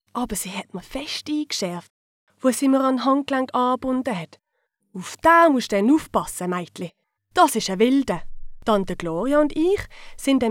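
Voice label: German, Swiss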